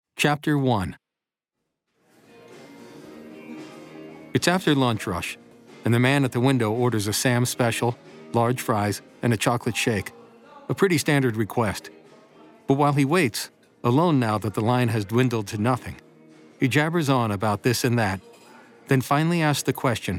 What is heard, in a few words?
Audiobook; William Kent Krueger; Fox Creek; Chapter 1; BAM Studios